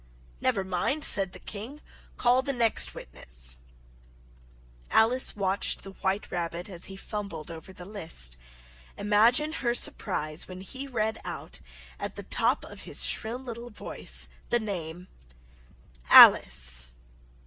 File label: alice in wonderland slow